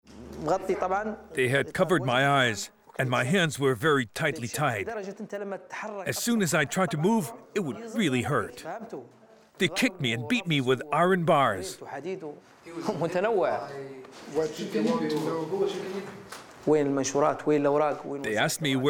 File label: Voiceover; Tracked Down